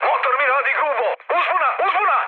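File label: Serbian damaged engine mood med, speech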